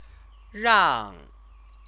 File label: "rang", Chinese; Chinese lessons